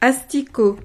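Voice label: "asticot", French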